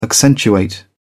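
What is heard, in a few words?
"accentuate", English, British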